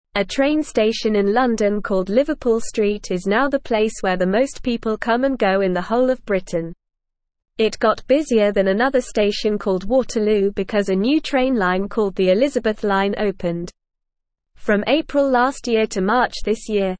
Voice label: English Lower Intermediate NORMAL Reading; Lots of People Use Liverpool Street Station; English Newsroom